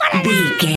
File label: voice fun character cute cartoon